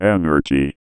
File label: VOICEFX ELCTR 140; Techno2